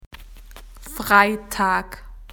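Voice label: "Friday", Australian English; PictureDictionary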